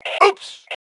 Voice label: "Ooops", Male; T2 Male 05